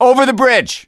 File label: misc directional, voice; gambler